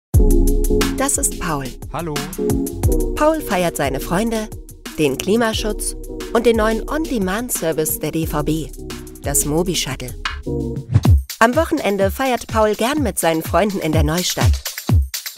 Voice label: german e learning

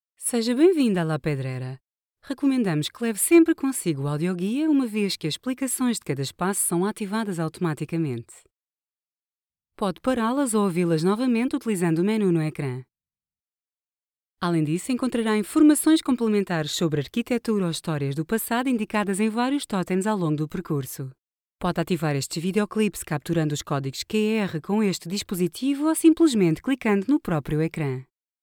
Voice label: portuguese audiobooks

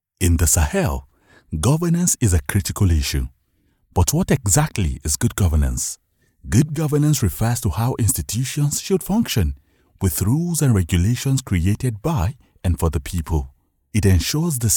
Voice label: english african corporate videos; Nigerian and African voiceover actor

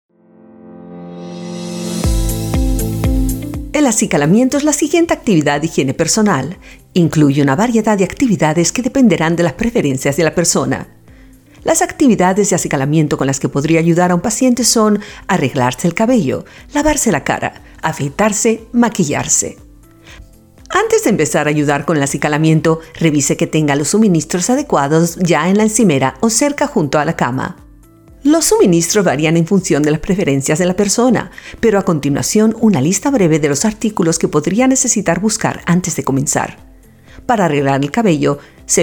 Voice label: spanish latin american audio guides

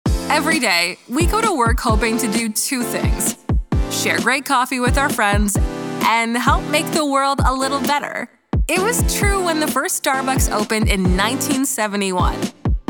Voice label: english canadian narration; professional Canadian voice actor, with a North American neutra

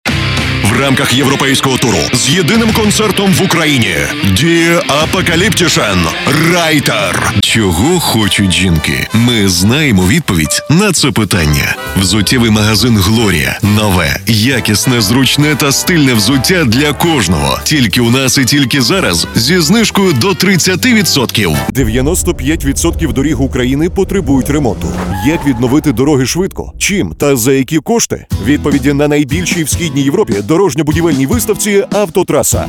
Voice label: ukrainian commercial

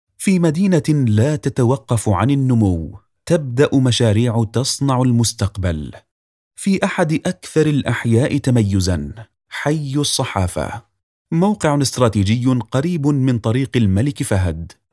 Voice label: arabic real estate

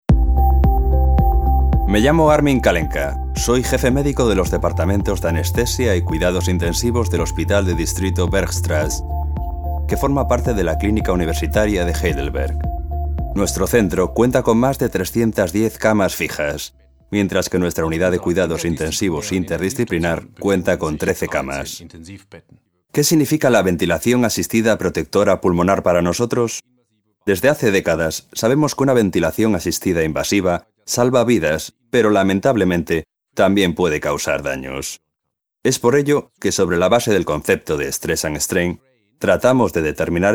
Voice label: spanish e-learning

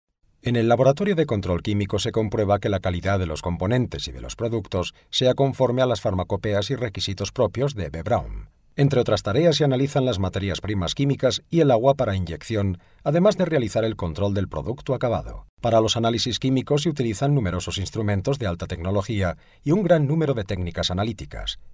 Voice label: spanish medical narration

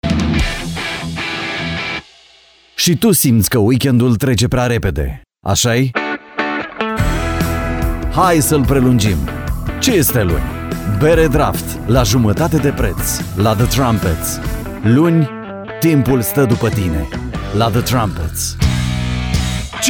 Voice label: romanian

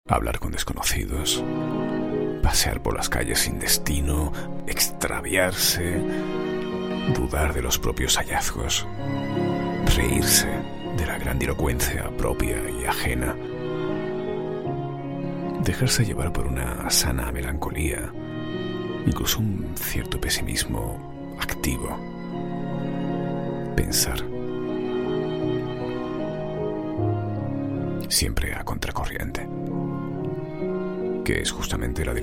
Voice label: spanish podcasts

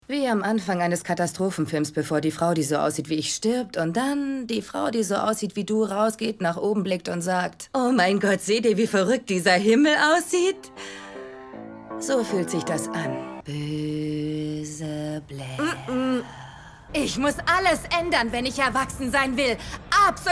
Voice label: german tv shows

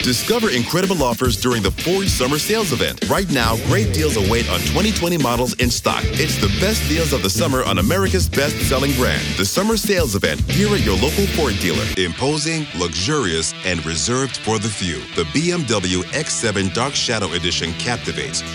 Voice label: english american automotive; a professional English voice actor

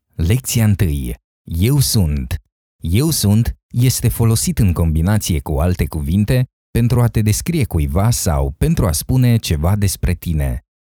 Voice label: romanian e-learning

